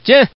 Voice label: Man